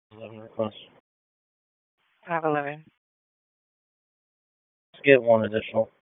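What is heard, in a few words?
Police Southeast District Dispatch, P25; Raleigh Police Southeast District Disp; wake p25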